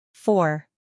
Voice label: "four", English